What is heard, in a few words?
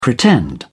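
"pretend", British English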